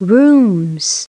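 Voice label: "rooms", English; SoftKey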